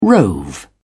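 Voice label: "rove", British English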